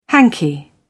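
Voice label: "hanky", British English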